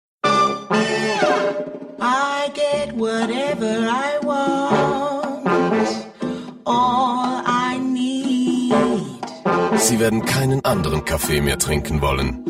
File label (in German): Akzent Schweizerdeutsch; Fremdtake